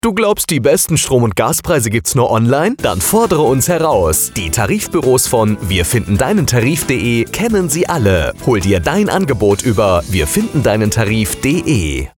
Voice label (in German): Funkspot; United Sales Consulting GmbH